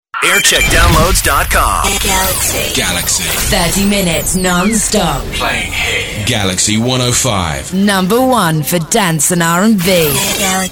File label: Imaging; Galaxy 105